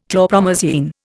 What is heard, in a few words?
"chlorpromazine", Indian